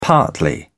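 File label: "partly", British English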